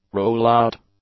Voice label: "rollout", English; nouns